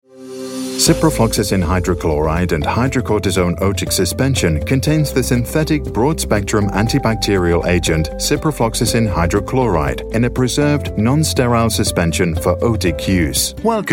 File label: elearning training